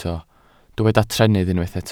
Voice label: "trennydd", Welsh

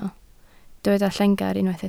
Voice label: "llengar", Welsh

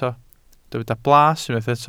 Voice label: "blas", welsh

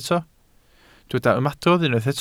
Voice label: "ymadrodd", Welsh

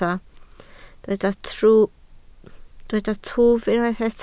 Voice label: "twf", Welsh

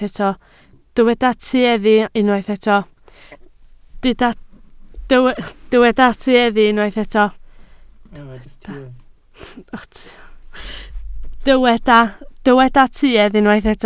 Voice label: "tuedd", Welsh

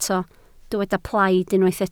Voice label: "plaid", Welsh

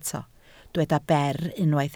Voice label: "ber", Welsh

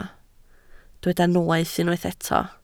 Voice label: "noeth", Welsh

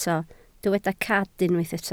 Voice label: "cad", Welsh

